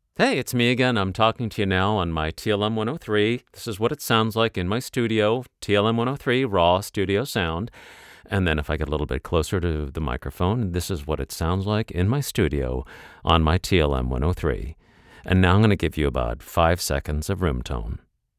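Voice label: Neumann TLM 103 raw, voice actor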